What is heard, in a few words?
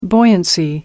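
"buoyancy", British English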